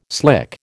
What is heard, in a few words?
"slick", American